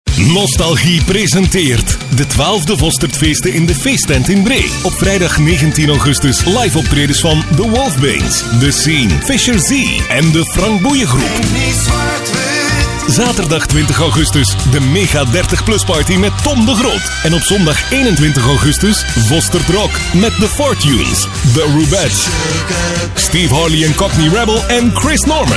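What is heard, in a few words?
flemish male commercial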